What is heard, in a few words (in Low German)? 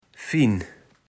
"fin", kaevels platt